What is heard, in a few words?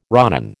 "ronin", American